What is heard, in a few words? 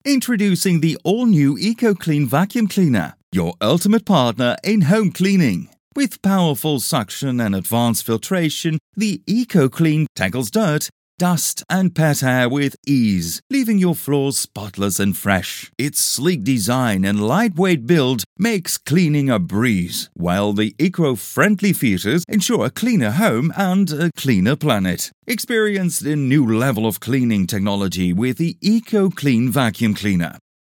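male voice, English accent, Flemish, Dutch; voice demos; GoLocalise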